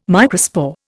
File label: "microspore", Indian